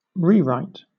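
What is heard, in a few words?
"rewrite", British English, noun; pronunciations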